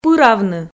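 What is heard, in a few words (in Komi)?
"пыравны", Komi, female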